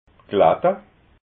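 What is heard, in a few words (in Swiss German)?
"klatta", Alsatian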